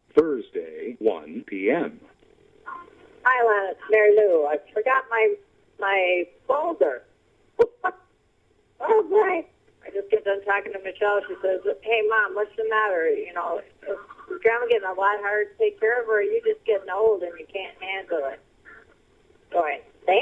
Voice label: Mystery Phone Message

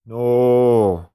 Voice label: Bored No

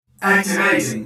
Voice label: Robot Voice Activating

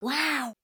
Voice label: Kid Says Wow

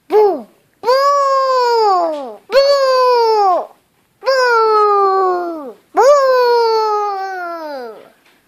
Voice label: Child Shouting 4x Boo Or Buh

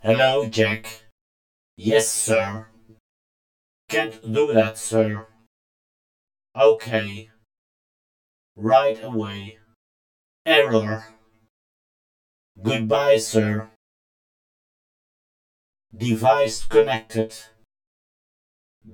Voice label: Computer Ui Voice

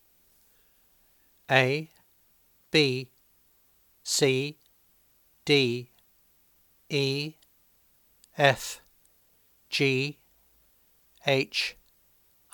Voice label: Alphabet Male

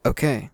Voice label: "Ok", Male

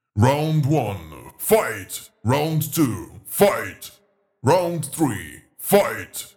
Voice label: Round 1 2 3 Fight Announcer Deep Voice

